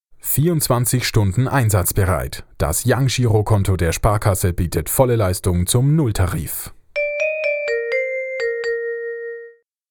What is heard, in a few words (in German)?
Werbung Reminder; Sparkasse